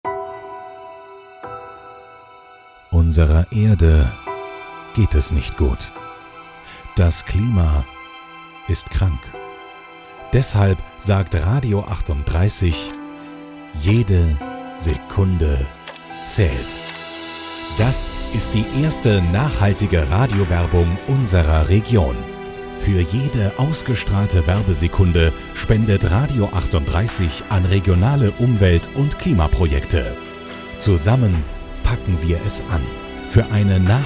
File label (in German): Trailer; Jede Sekunde zählt